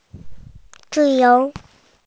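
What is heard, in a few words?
"freedom", Mandarin Chinese, female; zi4you2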